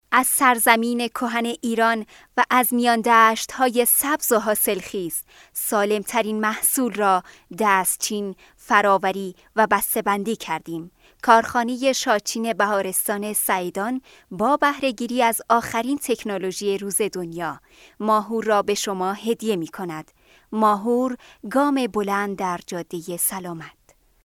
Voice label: farsi female